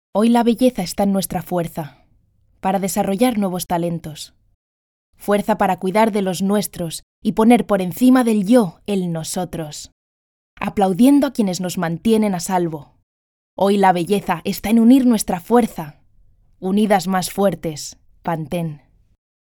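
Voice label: Spanish, female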